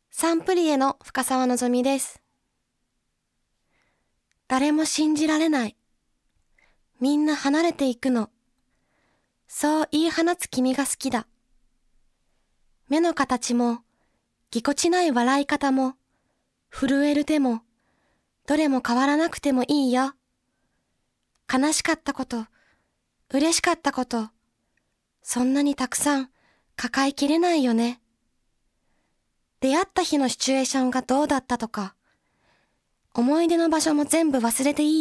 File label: Narr